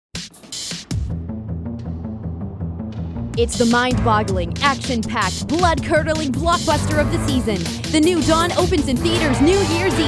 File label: Radio Commercial